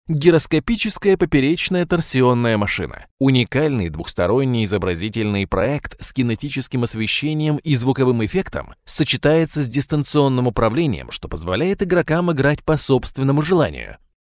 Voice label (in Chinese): 俄语试音